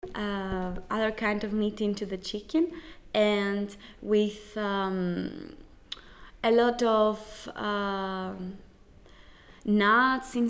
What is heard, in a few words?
Christmas greece english